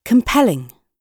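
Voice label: "compelling", British English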